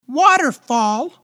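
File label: English WATERFALL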